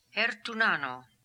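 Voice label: "Hertoonano", pronunciations; Fourth Way; Beelzebub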